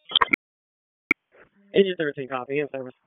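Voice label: Fire Department HQ Dispatch; RFD; wake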